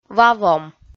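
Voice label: "ва вом", Komi, female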